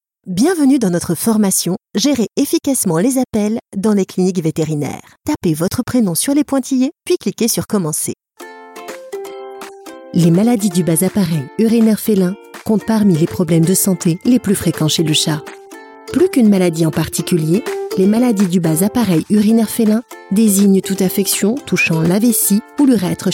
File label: french e-learning